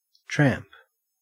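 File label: "tramp", Australian English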